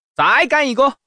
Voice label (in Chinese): gang, changsha man; mahjong paohuzi